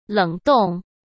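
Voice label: "冷冻", Mandarin Chinese; hsk; chinese-flashcards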